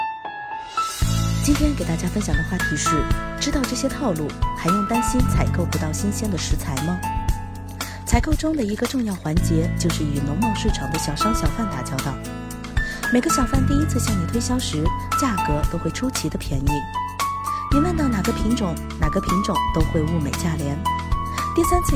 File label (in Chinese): 舒缓女音 语速快